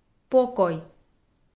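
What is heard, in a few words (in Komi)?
"покой", Komi, female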